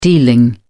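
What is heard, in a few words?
"dealing", British English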